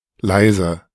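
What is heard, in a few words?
"leise", German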